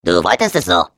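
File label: Defective Turret arrive, German; glados battle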